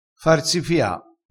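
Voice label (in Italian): "farsifia", vocabolario dialettale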